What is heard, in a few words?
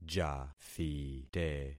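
"jathite", concatenated syllables; Affixing experiment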